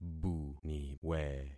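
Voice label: "buniwe", concatenated syllables; Affixing experiment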